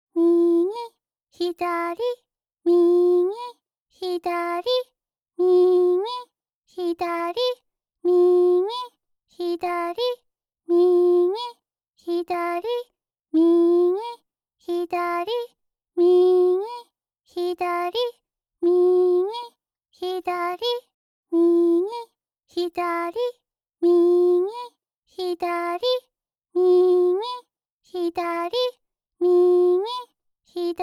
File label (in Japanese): 左右ボイス